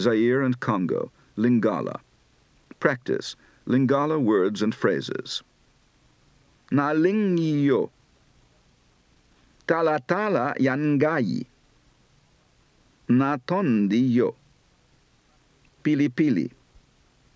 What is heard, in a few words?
East And West African, Accent